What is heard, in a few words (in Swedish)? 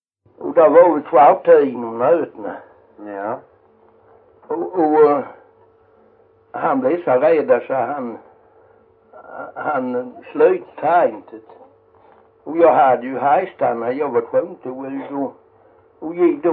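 Jämshög Blekinge, dialekter svenska sagor; Folkminnen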